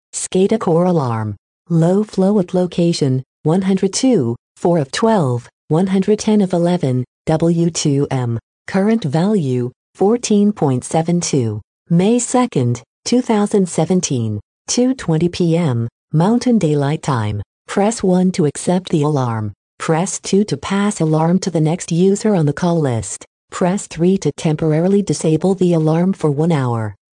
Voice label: alarm callout low flow notification